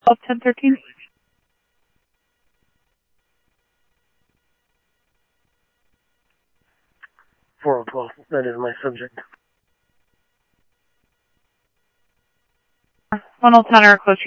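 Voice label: police department, scanner audio trunk; SCTSDLE PD - PD2 ScottsdalePolice; ThompsonPeakHigh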